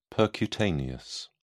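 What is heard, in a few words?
"percutaneous", British English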